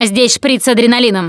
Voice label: adrenaline, russian; Producer